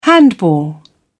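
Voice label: "handball", British English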